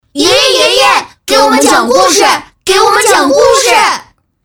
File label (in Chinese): 女 动漫 合声; 葫芦娃